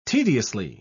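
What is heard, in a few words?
"tediously", British English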